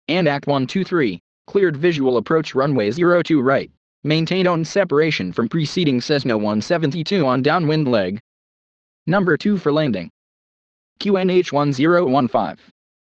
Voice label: ATC Belly landing